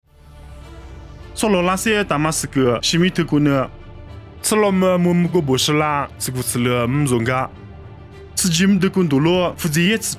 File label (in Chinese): 凉山彝语; 以色列大马士革; nuosu